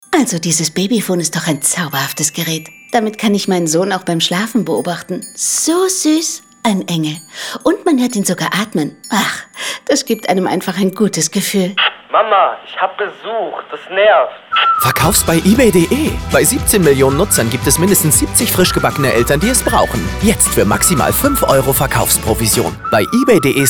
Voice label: Funkspot; ebay

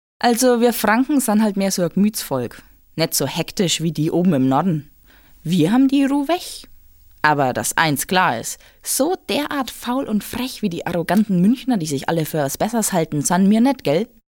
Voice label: dialekt; LOFT VOICES

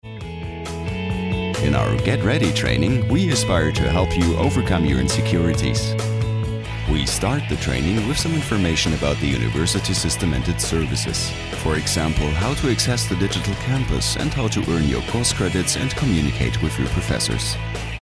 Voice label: imagefilm englisch; LOFT VOICES

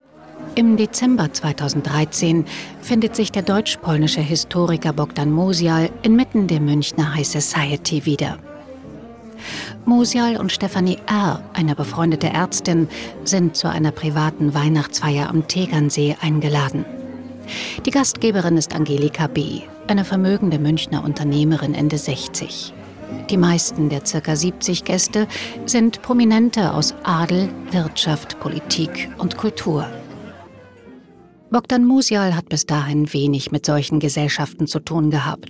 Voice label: podcast erzähler; auschwitz

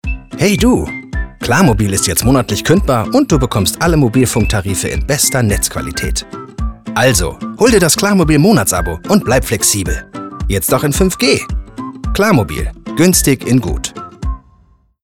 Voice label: Markenspot FUNK; Klarmobil; LOFT VOICES

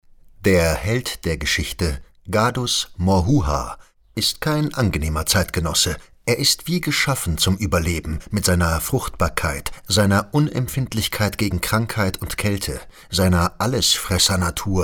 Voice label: Hoerbuch; Kabeljau

